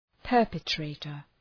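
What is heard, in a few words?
"perpetrator", English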